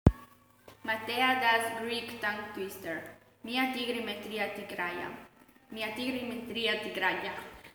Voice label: Greek